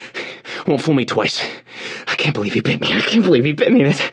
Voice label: radio initial broadcast; left4dead; churchguy; Source engine

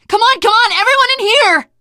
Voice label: stay together inside, survivor voice teen girl

